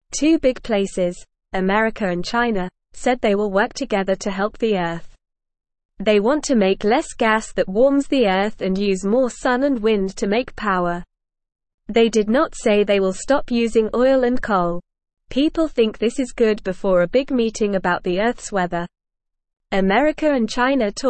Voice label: English Newsroom Beginner NORMAL Reading; America and China Join Forces to Help the Earth